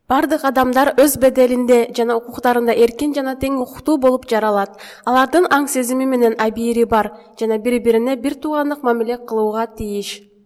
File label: udhr kyrgyz